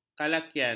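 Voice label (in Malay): "kalakian", Malay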